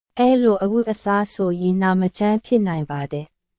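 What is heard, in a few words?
Myanmar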